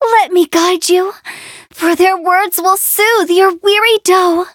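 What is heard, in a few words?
levelup, English; Cookie Run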